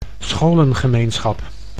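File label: "scholengemeenschap", Dutch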